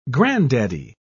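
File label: "granddaddy", English, British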